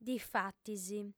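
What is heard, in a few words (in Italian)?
"difatis", lingua sarda, female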